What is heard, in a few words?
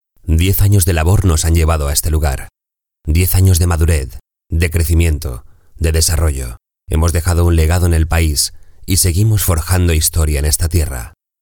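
Euro-Spanish 10 year anniversary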